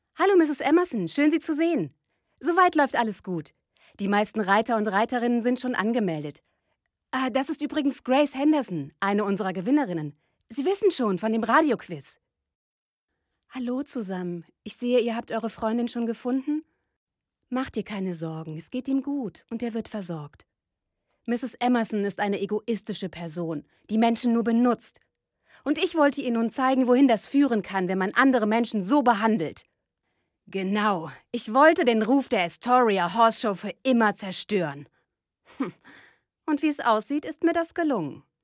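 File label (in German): Hörspiel; LUCY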